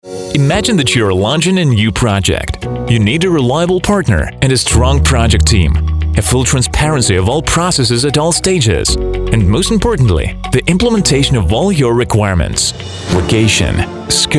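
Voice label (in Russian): английский язык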